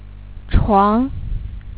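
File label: "床", Chinese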